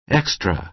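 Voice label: "extra", American English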